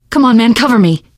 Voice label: cover me, teengirl; survivor voice